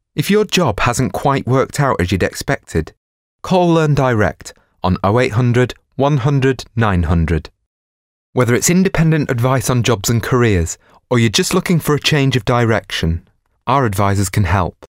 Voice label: Commercial; Learn Direct

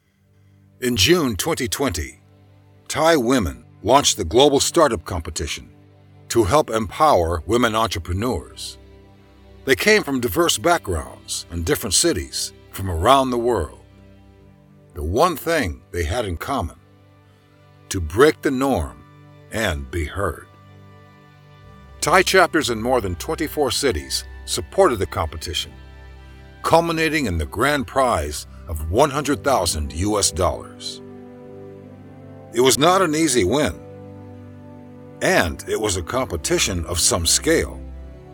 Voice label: Inspirational VO

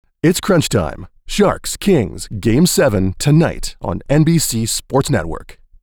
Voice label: Promo; NBC; Crunch time

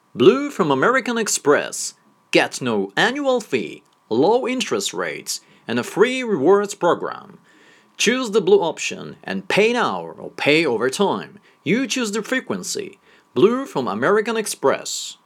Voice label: Corporate

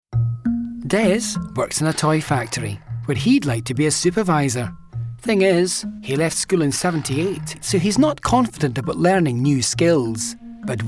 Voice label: Commercial

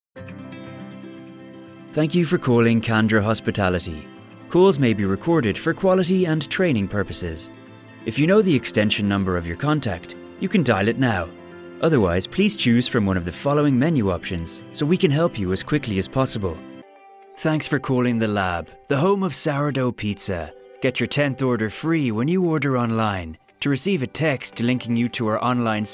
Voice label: ivr

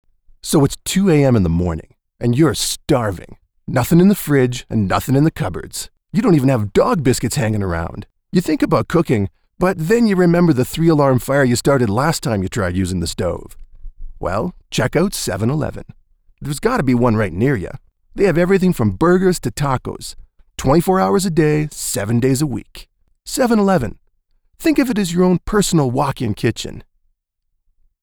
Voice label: Promotional spot

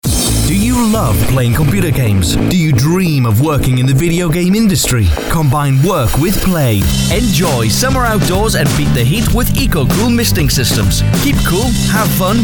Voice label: Commercial

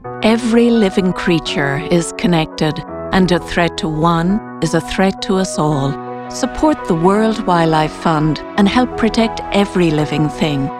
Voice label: Commercial

